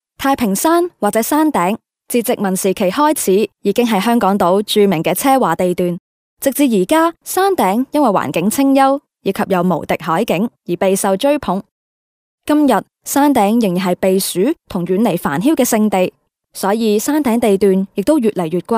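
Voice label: Cantonese female corporate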